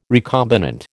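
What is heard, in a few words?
"recombinant", American English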